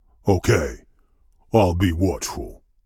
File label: Voice Dialog